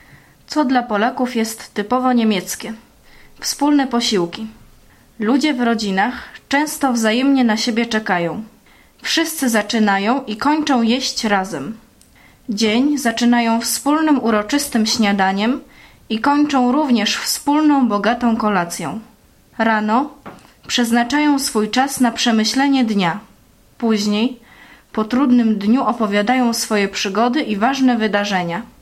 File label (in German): polnisch; Essen